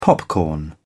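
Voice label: "popcorn", British English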